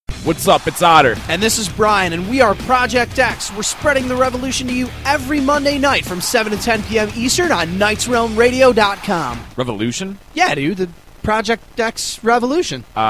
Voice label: promos; NRRRadio